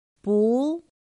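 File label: "bú", Mandarin Chinese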